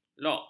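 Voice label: "log", Malay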